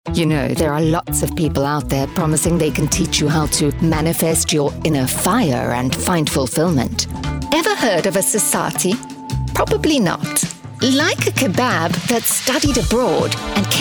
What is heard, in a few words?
commercial